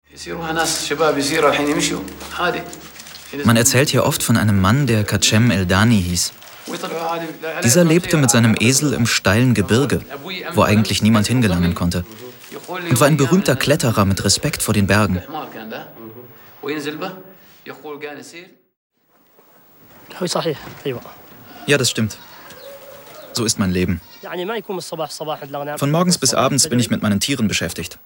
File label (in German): Neutral